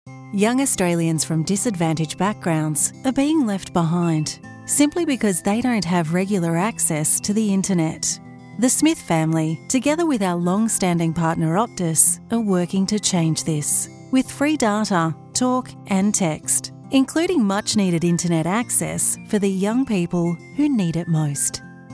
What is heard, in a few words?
nurturing explainer video